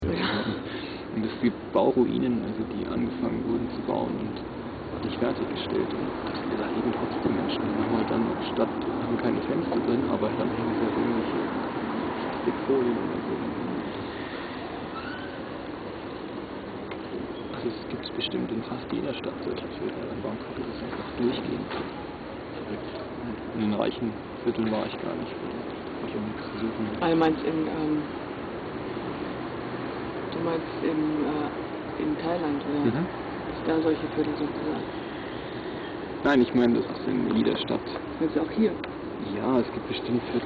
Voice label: German softer